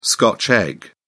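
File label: "scotch egg", British English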